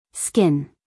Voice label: "skin", US female